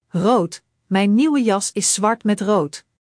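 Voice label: "rood", Dutch; Spelling Gr4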